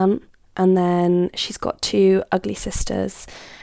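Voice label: Spontaneous Speech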